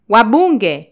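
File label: "wabunge", Kenyan Swahili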